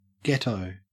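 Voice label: "ghetto", Australian English; pronunciations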